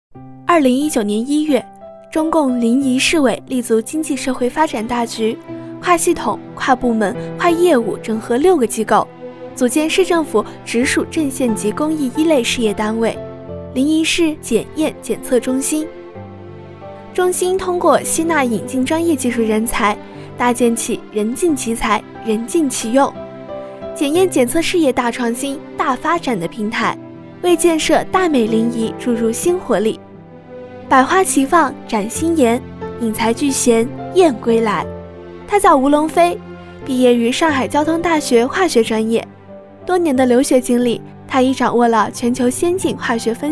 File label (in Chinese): 女 专题 学校专题